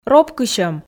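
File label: "ропкысьӧм", Komi, female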